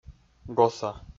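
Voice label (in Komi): "госа", Komi, male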